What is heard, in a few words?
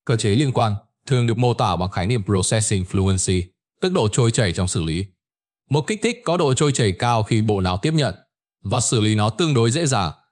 narration